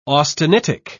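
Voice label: "austenitic", British English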